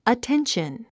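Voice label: "attention", English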